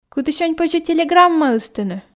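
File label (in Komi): кытысянь позьӧ телеграмма ыстыны, Komi, female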